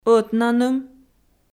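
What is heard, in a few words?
"ӧтнаным" Komi, female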